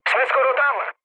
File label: near waypoint, speech cze, mood low; War Thunder